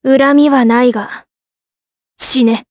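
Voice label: Vox Skill, voices, Japanese; Tanya; kingsraid audio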